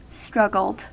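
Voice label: "struggled", US English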